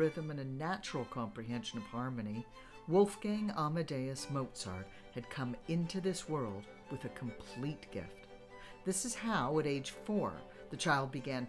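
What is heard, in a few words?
voice sample narration biography, female voice; mozart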